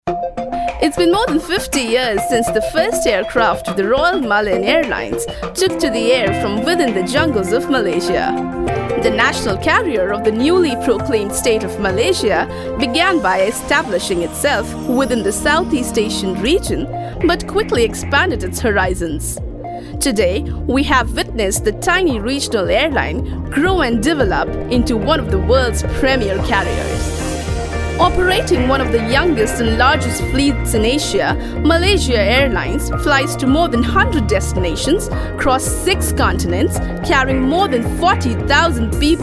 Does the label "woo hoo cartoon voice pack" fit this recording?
No